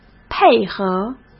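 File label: "配合", Mandarin Chinese; hsk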